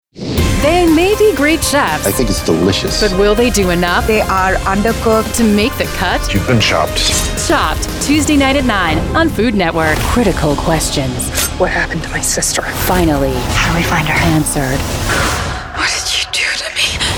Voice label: promo